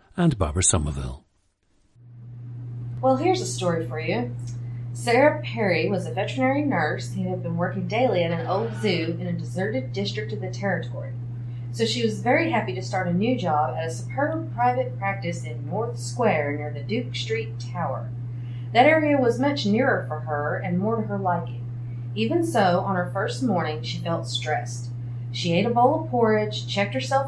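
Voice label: arkansas, International Dialects of English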